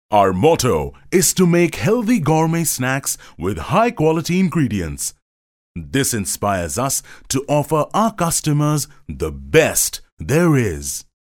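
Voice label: English voice over, male voice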